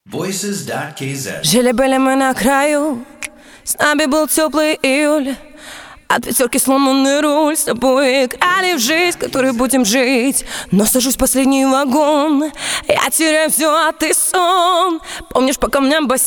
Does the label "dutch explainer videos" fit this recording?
No